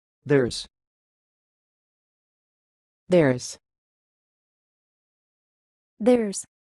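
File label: There is American pronunciation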